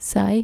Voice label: "saai", Dutch